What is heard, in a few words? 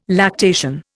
"lactation", Indian